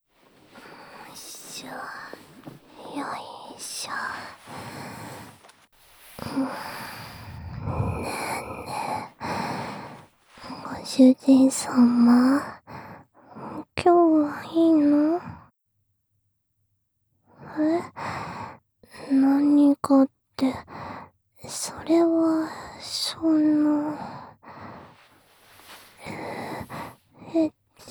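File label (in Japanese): スローえっち（プレミアム猫 囁きブースト 効果音あり）; 究極のはじめてスローえっち、繋がったまま一緒におねんね; プレミアムにゃんこサウンド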